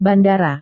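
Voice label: "bandara", Indonesian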